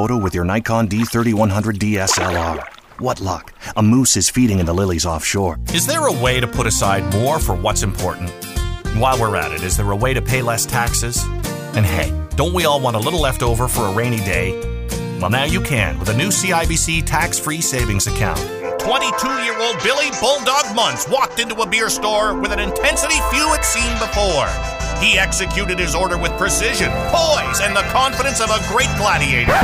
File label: Commercials Narration